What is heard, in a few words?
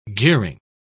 "gearing", pronounce